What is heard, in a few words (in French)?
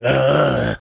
Engineer negative vocalization, fr